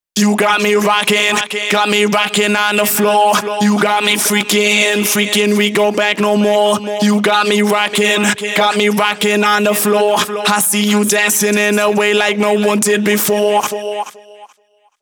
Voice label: Rap Vocals Chorus WET, 140 BPM; Vengeance Samples; Song Kits; U Got Me Rocking